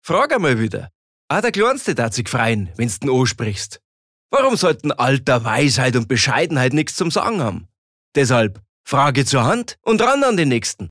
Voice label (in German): Dialekt Bayerisch